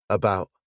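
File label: "about", British English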